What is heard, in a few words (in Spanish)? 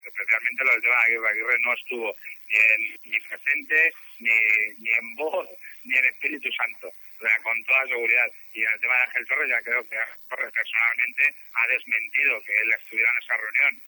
noticias